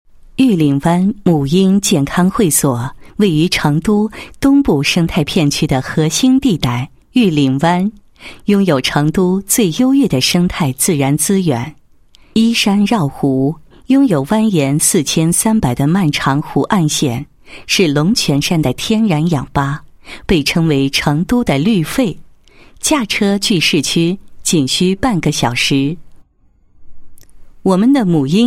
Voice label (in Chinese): 女, 母婴企业推广; 御嶺湾母婴健康会所